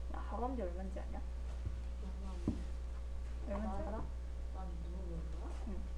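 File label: "clock", spoken word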